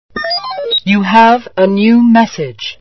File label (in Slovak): sms hlásky en